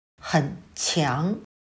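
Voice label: "很强", Chinese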